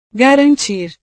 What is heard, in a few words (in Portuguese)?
"garantir", portugues